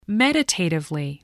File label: "meditatively", voice, spelling